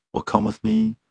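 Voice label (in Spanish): Voice Acting, Spanish, male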